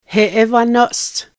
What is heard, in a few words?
woman sage, Cheyenne